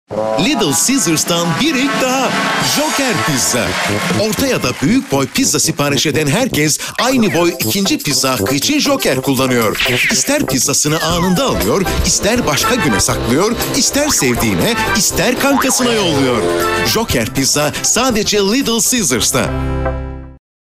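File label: Turkish, commercial, voice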